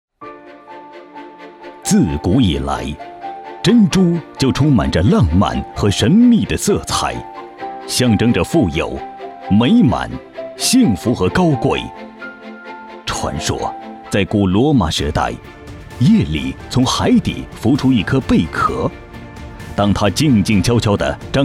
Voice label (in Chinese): 男 专题 激情饱满; 珍珠